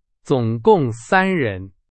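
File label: Chinese, example sentence; hsk4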